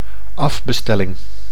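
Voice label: "afbestelling", Dutch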